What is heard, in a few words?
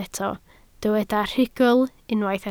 "rhugl", Welsh